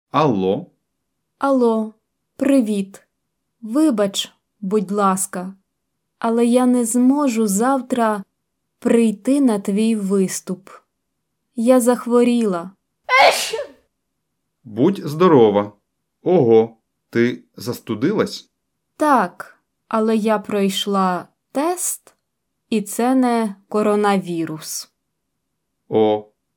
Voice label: Dialogue Slow